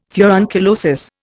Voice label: "furunculosis", Indian